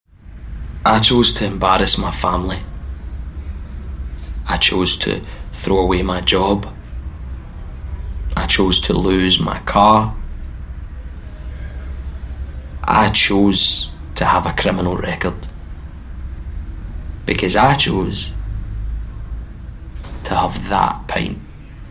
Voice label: Drink Driving Campaign Commercial; Scottish Government